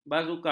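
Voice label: "bazuka", Malay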